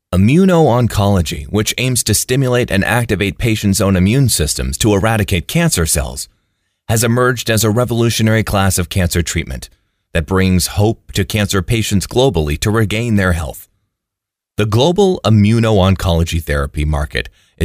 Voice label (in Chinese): 英式美式 干音